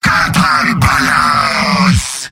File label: battlecry, demoman; tf2; garrysmod; mvm